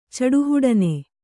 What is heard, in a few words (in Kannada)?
"ಚಡುಹುಡನೆ", Kannada